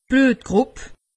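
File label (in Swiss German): "bluttgrupp", Alsatian; medecine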